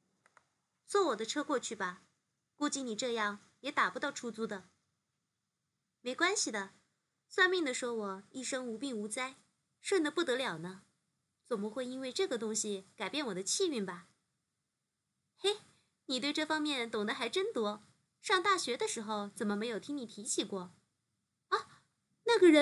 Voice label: 女播; 阴阳宅院

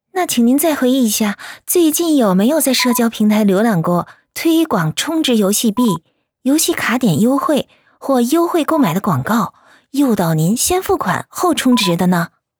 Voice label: 客服甜美热情

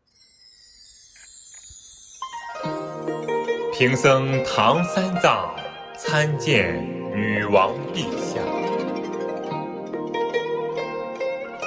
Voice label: 女儿国片段配音

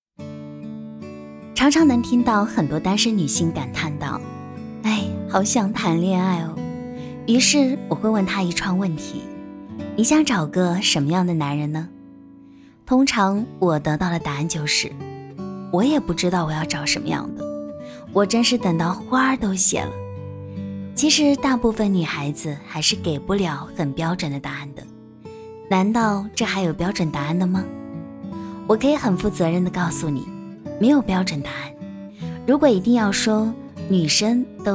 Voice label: 女性电台栏目深夜女性电台栏目

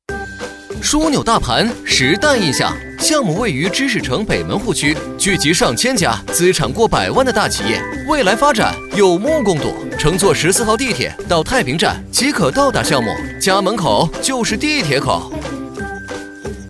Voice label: 讲解风格

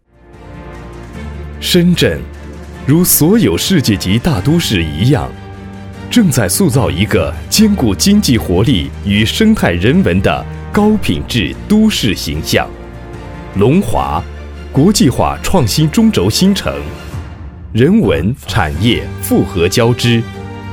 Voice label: 大气专题; 龙华区

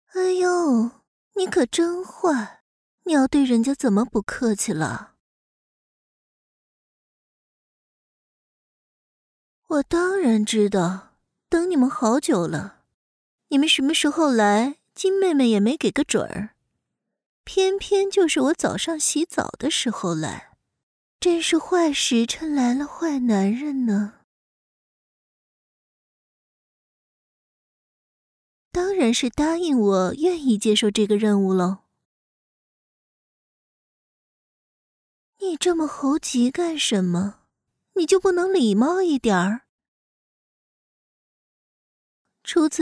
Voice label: 科幻冒险风情御角色音

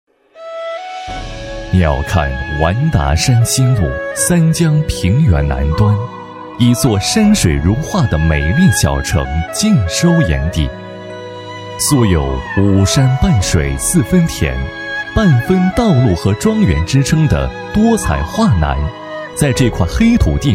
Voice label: 山水如画纪录片

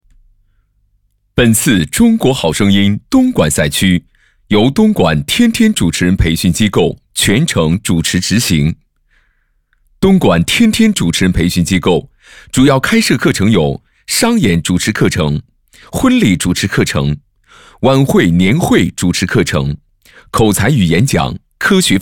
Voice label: 主持录音

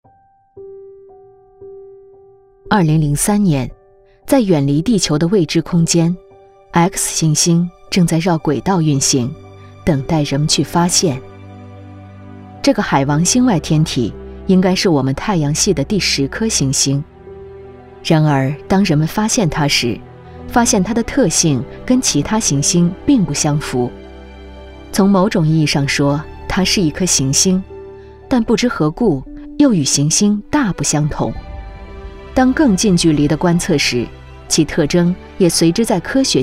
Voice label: 纪录片矮行星

